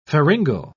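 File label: "pharyngal", English, British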